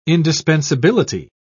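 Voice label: "indispensability", British English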